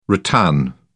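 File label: "rattan", British English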